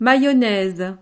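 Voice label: "mayonnaise", French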